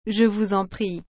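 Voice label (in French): "Je vous en prie", French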